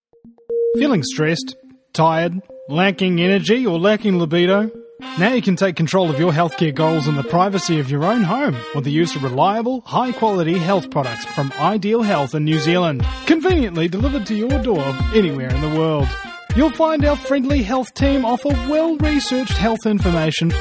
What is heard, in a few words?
radio ad